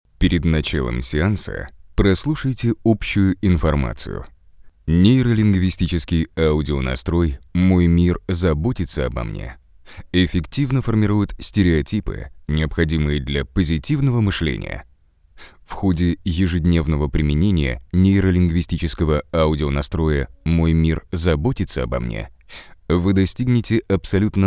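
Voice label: low slow Russian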